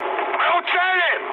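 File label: Russian near waypoint mood high, speech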